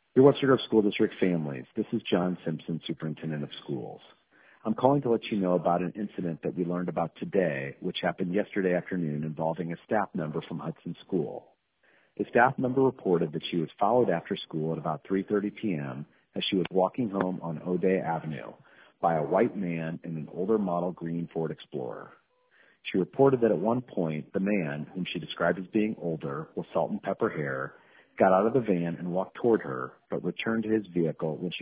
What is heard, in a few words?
voicemail